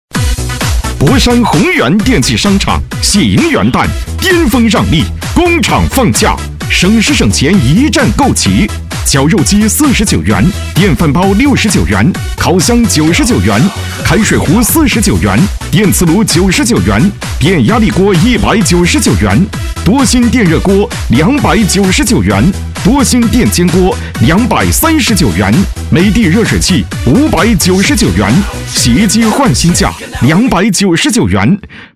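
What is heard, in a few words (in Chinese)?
男【激情促销】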